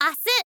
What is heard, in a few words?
"asu", girl